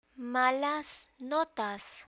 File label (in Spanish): "malas notas", Spanish; school vocabulary; learn spanish